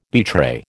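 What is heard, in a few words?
"betray", American English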